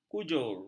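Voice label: "kujur", Malay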